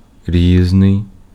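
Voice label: Ukrainian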